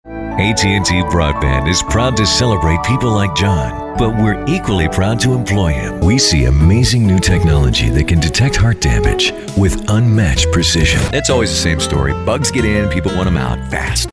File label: Commercial; onhold